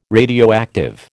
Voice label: "radioactive", American

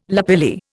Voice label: "lapilli", Indian

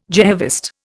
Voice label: "Jehovist", Indian

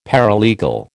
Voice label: "paralegal", American

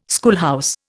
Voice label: "schoolhouse", Indian

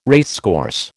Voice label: "racecourse", American

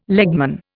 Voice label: "legman", Indian

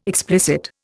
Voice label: "explicit", Indian

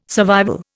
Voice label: "survival", Indian

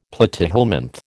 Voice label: "platyhelminth", American

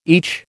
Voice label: "each", American

